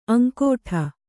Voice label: "ಅಂಗಾಡಿ", Kannada

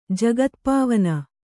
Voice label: "ಜಗತ್ಪಾವನ", Kannada

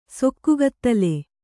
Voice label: "ಸೊಕ್ಕುಗತ್ತಲೆ", Kannada

